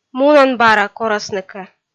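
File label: "мунан бара корасны кӧ", Komi, female; kpv audio dict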